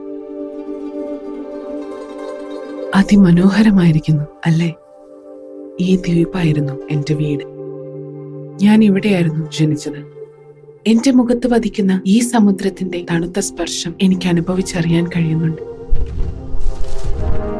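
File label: Malayalam, Drama; GoLocalise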